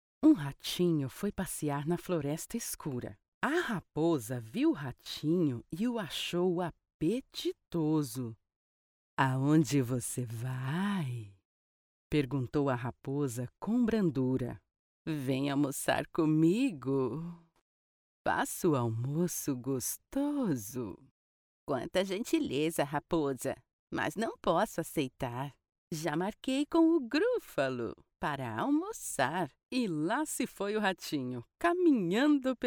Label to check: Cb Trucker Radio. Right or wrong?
Wrong